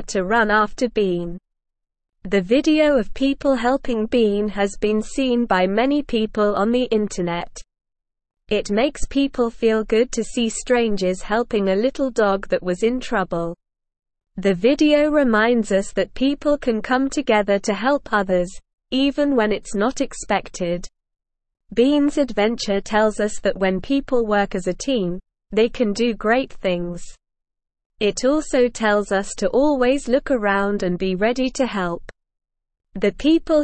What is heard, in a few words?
English Lower Intermediate SLOW Reading; Bean the Lost Dog Finds Help on a Busy Road; English Newsroom